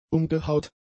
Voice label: "umgehaut", German; adjectives